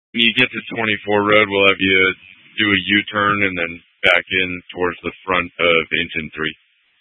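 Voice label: Incident Tactical 1, Scanner audio recording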